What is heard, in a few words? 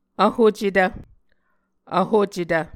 "vhocetv", Muscogee